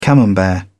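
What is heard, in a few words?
"camembert", British English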